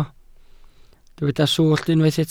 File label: "swllt", welsh